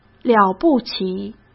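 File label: "了不起", Mandarin Chinese; chinese flashcards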